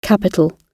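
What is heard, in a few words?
"capital", British English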